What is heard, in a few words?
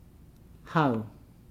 "necklace wreath crown", Nukuoro